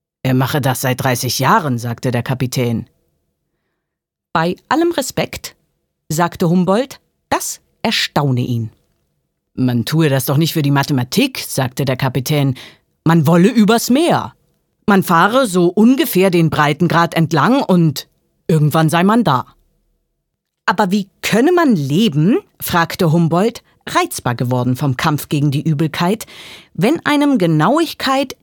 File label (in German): Hoerspiel